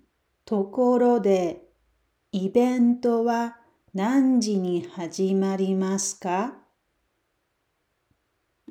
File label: japanese Dialogue